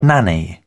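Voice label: "nanny-goat", British English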